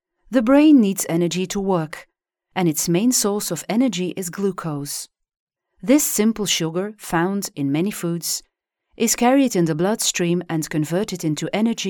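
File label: Flemish, female, voice over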